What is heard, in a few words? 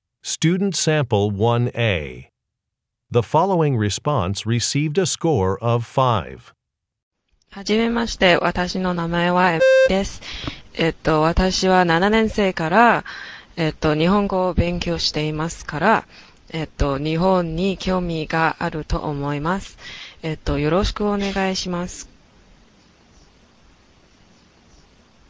japanese conversation; Interpersonal Speaking Samples; Conversation 1 Sample A (Score of 6)